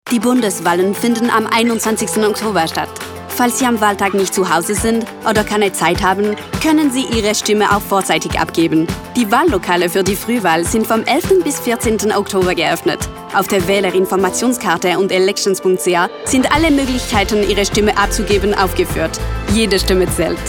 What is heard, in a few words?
early voting option German, radio advert